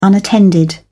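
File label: "unattended", British English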